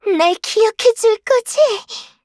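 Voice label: kill Player, Voice Line, Korean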